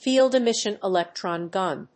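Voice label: "field emission electron gun", English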